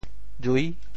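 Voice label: "jui6", Teochew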